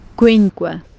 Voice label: "quinque", Latin; kwiŋkwe